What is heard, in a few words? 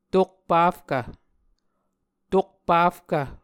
"tokpafkv", muscogee